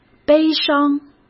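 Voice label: "悲伤", Mandarin Chinese; hsk; chinese-flashcards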